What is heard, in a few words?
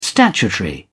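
"statutory", British English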